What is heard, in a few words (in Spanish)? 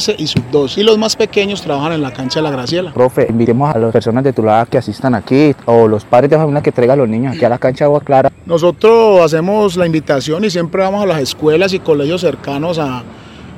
entrevista profesor de river plate